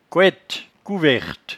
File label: "couette", patois jura